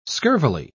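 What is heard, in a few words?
"scurvily", English, British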